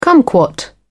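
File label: "cumquat", British English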